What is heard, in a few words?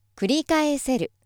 female